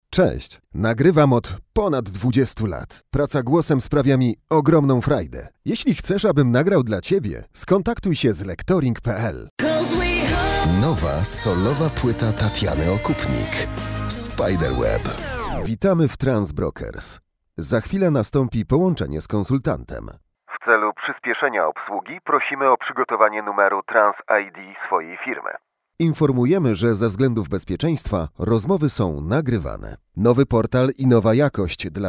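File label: polish male standard; lektoring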